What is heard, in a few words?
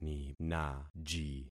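"ninaji", concatenated syllables; Affixing experiment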